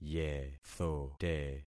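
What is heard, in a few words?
"yethote", concatenated syllables; Affixing experiment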